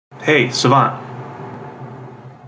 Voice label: hey savant, wake word, en